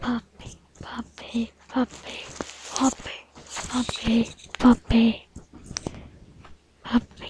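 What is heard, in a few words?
"puppy", spoken word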